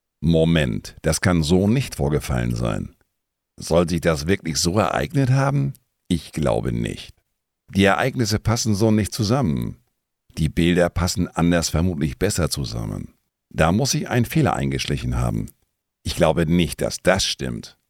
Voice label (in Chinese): 德语 男声; 外籍